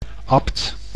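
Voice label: "abt", Dutch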